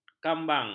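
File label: "kambang", Malay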